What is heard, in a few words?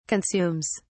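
"consumes", British English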